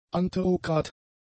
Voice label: "anterograd", German; adjectives